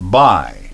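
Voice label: "buy", English pronunciation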